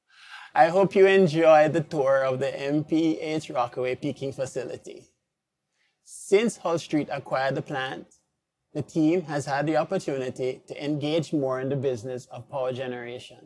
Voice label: interview clean, deresonsance, stutter; restored